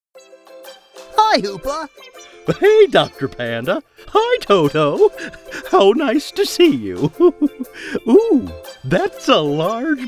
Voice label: Animation